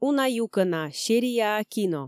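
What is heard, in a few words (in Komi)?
уна юкӧна серияа кино, Komi, female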